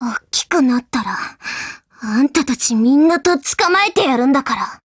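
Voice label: BREAK Japanese; GF Alarm; UMP45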